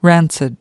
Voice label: "rancid", us